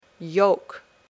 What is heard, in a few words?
"yolk", English